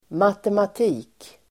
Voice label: "matematik", Swedish

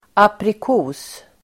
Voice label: "aprikos", Swedish

